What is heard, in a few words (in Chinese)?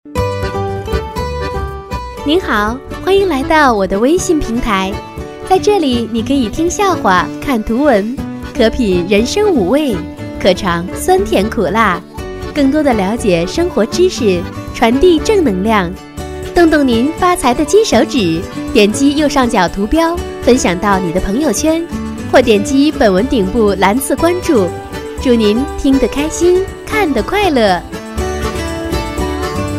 【彩铃】; 微信平台